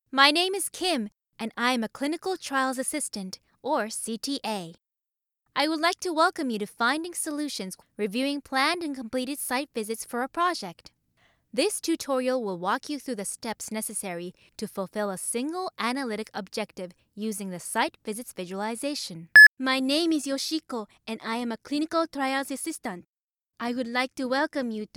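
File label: Japanese, English, more less accent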